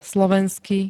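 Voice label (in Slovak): "slovensky", Slovak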